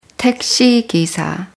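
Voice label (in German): "Taxifahrer", German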